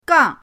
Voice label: "gang4", pinyin; pinyindct-open